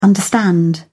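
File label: "understand", English, British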